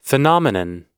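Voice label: "phenomenon", US English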